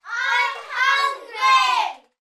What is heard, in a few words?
human group children x6 uk aged 3 to 8 shout im hungry